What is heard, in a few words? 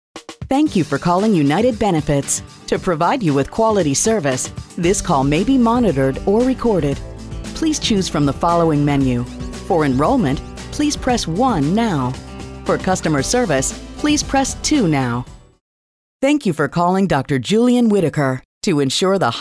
Phone